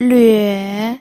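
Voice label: "lüe", third tone, pinyin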